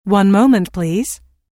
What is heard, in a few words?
One Moment Please, Telephone prompt, Pre-recorded telephone; Pre-recorded telephone on-hold message; Recorded Voice Sound Effects